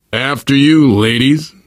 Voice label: lead on, survivor voice